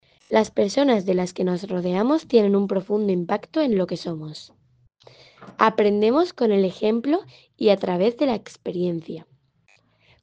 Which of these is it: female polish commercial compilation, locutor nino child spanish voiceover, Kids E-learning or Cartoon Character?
locutor nino child spanish voiceover